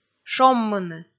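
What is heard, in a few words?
"шоммыны", Komi, female; kpv audio dict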